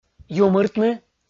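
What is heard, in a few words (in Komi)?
"юмыртны" Komi, male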